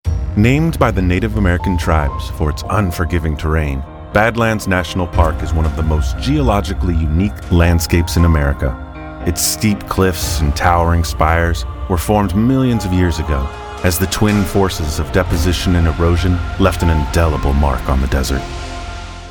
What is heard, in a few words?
Narration; Badlands